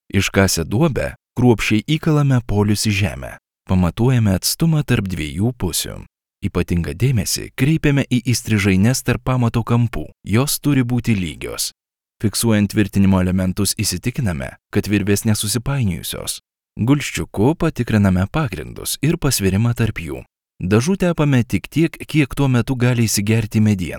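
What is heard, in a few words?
Lithuanian, male, elearning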